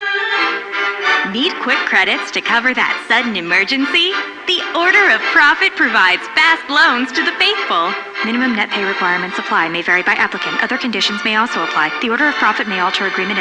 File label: PA System, en; Warframe; Solaris Venus